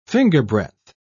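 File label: "fingerbreadth", British English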